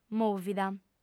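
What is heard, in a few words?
"mòvida", female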